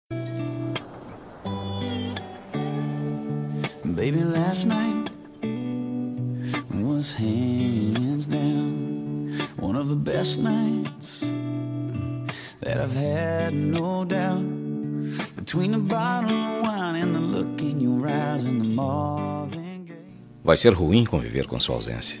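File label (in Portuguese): despedida para quem vai masculino, telemensagem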